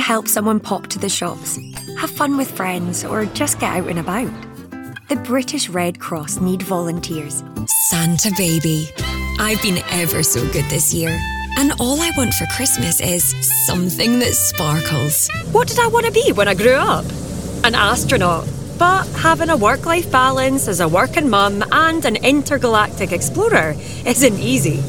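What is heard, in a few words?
English UK, commercial, Scottish; GoLocalise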